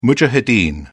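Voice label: "mujahideen", British English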